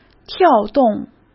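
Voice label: "跳动", Mandarin Chinese; hsk; chinese-flashcards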